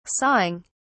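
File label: "sawing", English, British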